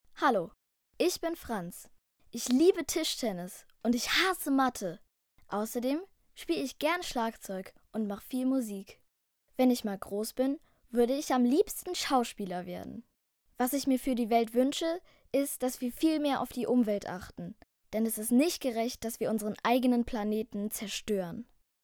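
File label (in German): intro, Kindersprecher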